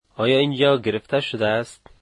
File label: Is this seat taken, dari